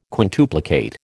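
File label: "quintuplicate", American English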